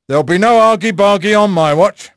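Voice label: English male; Pirates Of The Caribbean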